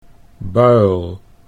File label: "buil", Dutch